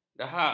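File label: "dahak", Malay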